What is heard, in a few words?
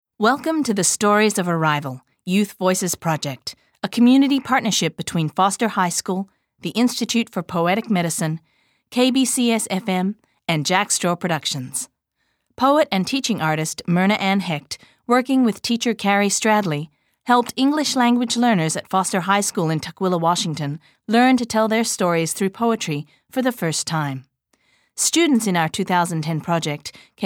Opening Narration